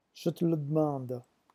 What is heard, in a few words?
"jete", phonetics